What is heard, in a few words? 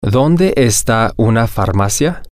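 where is a pharmacy drug store, Spanish, male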